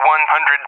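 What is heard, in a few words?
"100", English, USA; Numbers; DCS; Allied Flight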